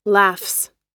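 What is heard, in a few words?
"laughs", American English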